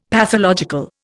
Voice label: "pathological", Indian; Dictionary